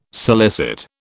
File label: "solicit", British